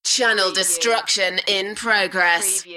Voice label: UK female; Channel destruction in progress